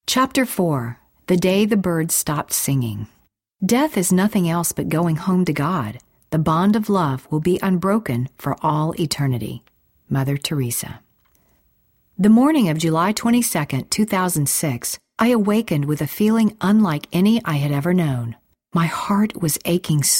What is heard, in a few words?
audiobook